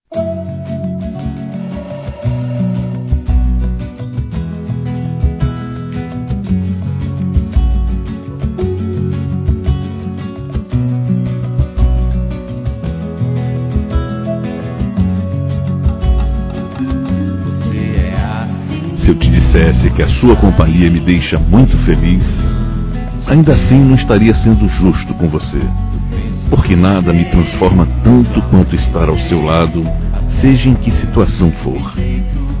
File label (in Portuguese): Convite Para Sair Masculino; Telemensagens; Convites e Agradecimentos; Telemensagens 2017